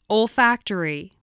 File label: "olfactory", voice, spelling